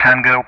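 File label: "Tango", English; Ka-50; DCS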